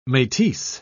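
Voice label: "metis", English, British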